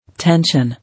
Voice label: "Tension", English

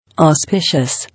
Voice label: "Auspicious", English

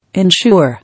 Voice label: "Ensure", English

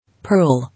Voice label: "Pearl", English

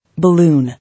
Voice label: "Balloon", English

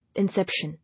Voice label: "Inception", English

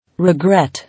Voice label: "Regret", English

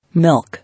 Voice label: "Milk", English

